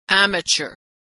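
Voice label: "amateur", American English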